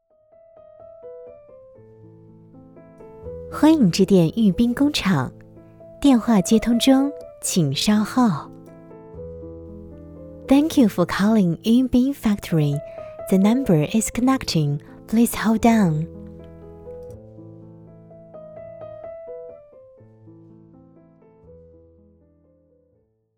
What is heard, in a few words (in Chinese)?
彩铃 工厂彩铃中英文 甜美, 女